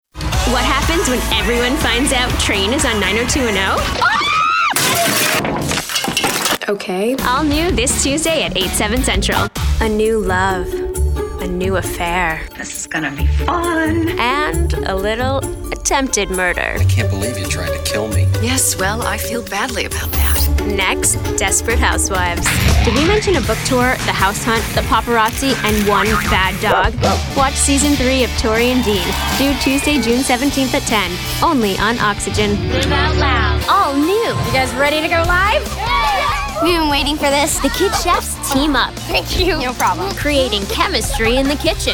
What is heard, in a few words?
PROMO